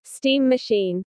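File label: "steam machine", English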